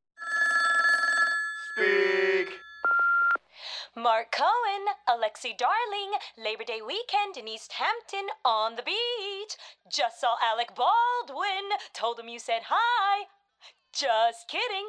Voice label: Voice Mail

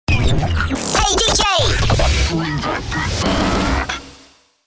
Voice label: Voice Accent - Hey Dj; DJ sounds

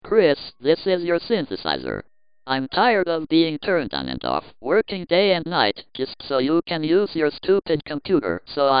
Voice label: crash dec talk

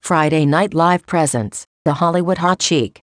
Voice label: friday night live hot cheek feemail voice

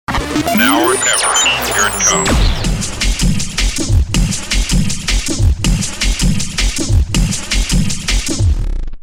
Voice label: Voice Accent; DJ sounds

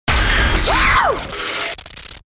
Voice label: Voice Accent - Wow; DJ sounds

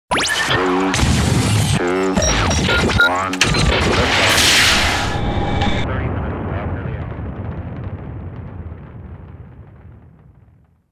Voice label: Voice Accent - Three, Two, One, Liftoff; DJ sounds

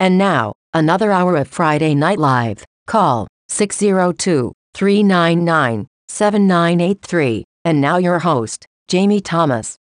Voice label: friday night live hour feemail voice

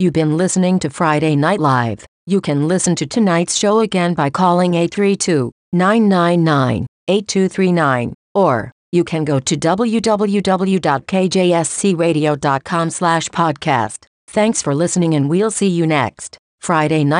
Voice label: friday night live outro feemail voice

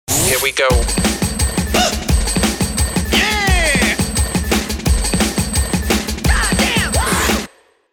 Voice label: Voice Accent - Here We Go; DJ sounds

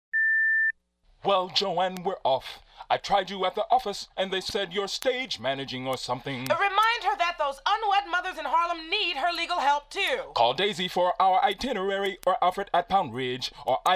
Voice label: Voice Mail

